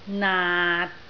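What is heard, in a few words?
"naat3", Cantonese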